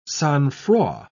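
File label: "sang-froid", British English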